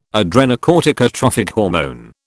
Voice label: "adrenocorticotrophic hormone", British